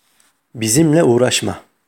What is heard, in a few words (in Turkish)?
"bizimle uğraşma", Turkish